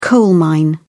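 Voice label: "coal-mine", English, British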